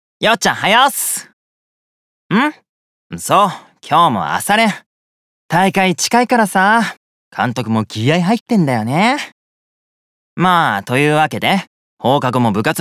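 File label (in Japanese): 明るい高校生, ボイスサンプル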